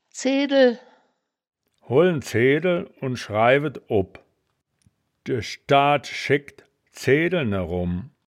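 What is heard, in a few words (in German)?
"zeedel", Wittlicher Wörterbuch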